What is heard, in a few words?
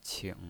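"qing" third tone, pinyin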